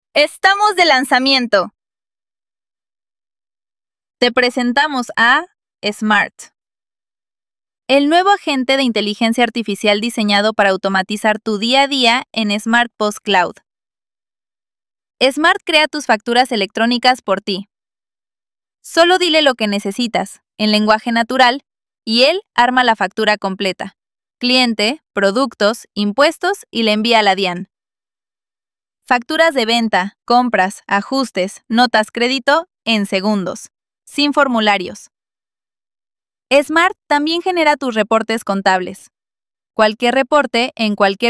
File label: voiceover